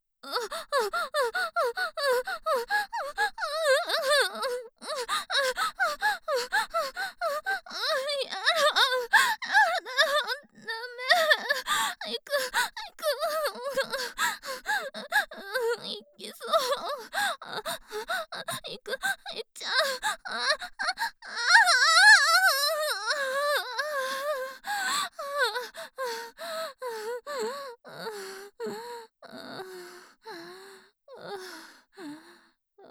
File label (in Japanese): セリフ(可愛い感じで絶頂)